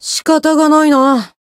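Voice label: heal, Japanese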